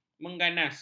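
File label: "mengganas", Malay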